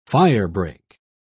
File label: "firebreak", pronounce